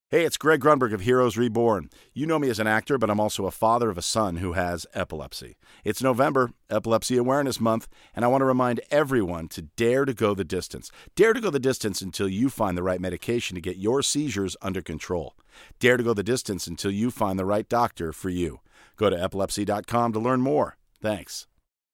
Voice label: spoken word; lewitt